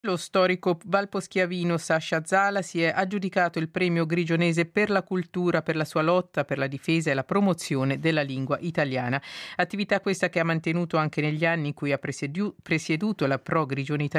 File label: RSI News